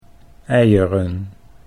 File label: "eieren", Dutch